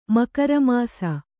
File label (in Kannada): "ಮಕರಮಾಸ", Kannada